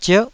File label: "zierh9", taiwanese